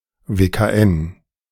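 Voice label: "WKN", German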